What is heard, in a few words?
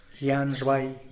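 "gianrbai" bearded vulture, Zapotec; animals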